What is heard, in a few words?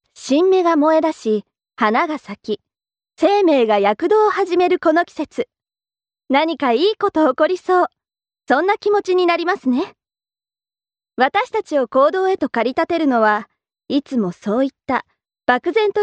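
Japanese, female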